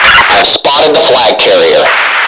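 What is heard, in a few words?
female; lmctf; radio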